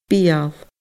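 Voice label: "beul", Scottish Gaelic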